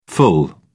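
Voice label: "ful", British English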